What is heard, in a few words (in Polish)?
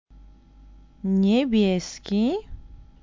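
"niebieski", polaco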